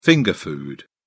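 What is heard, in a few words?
"finger food", British English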